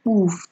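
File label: "kpuf", Nigerian Pidgin, female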